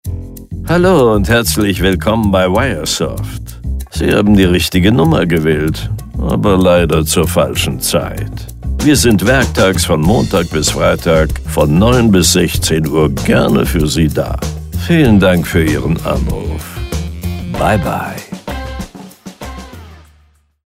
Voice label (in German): Anrufbeantworter Ansage stereo; Synchronsprecher; Telefonansage; Samuel L Jackson Synchronsprecher spricht Telefonansagen für Wiresoft